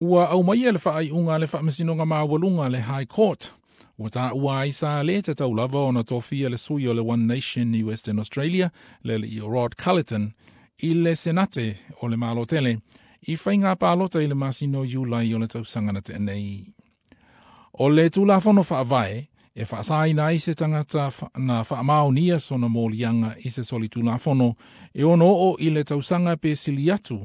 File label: samoan; SBS Samoan; Sa le tatau ona tofia Rod Culleton i le Senate